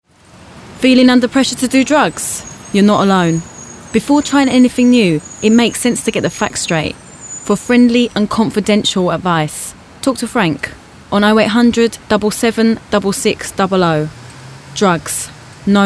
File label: Drugs Ad